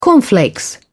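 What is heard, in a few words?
"cornflakes", British English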